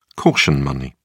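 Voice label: "caution money", British English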